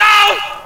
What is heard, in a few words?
"oh", yell; Voice